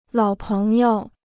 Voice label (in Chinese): "老朋友", Chinese